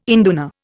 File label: "induna", Indian